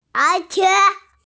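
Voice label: "er3qie3", Mandarin Chinese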